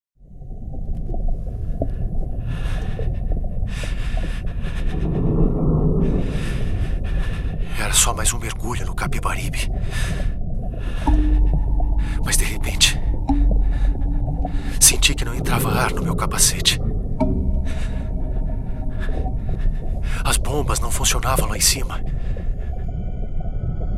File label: portuguese brazil male